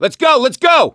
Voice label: emphatic go, voice; gambler